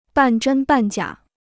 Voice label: "半真半假", Chinese